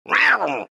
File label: anger; tinker